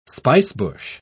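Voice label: "spicebush", British English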